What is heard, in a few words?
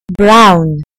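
"brown", British English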